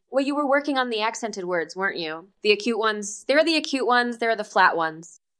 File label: Voice Acting es F